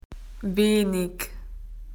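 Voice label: "little", Australian English; PictureDictionary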